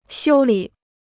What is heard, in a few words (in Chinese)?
"修理", Chinese